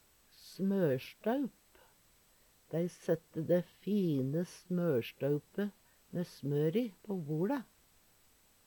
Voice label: smorstoup, Numedalsmål; Smørstaupa var lagga og gjerne fint dekoret. Det har tre bein.

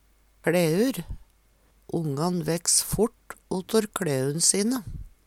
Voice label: klaeur, Numedalsmål

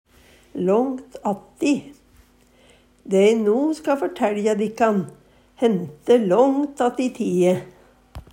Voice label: langt atti, Numedalsmål; Dæ e no ska førteLja dikkan, hente långt atti tie.

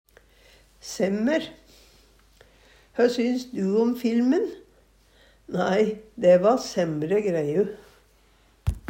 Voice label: "semmer", Numedalsmål; Adjektiv

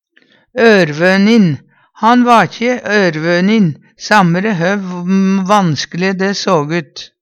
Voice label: orvonin, Numedalsmål; Han va ikkje ørvønin samre hø vanskele dæ såg ut.; Adjektiv

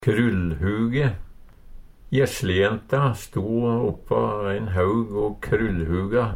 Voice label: krullhuge, Numedalsmål

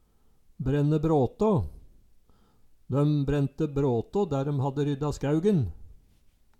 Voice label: "brenne bråtå", Numedalsmål

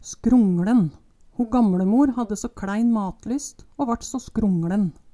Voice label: "skrongLen", Numedalsmål

